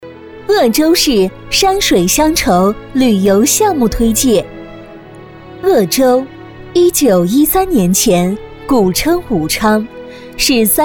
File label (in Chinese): 女 专题 旅游项目推介, 国语女声配音; 鄂州市 山水乡愁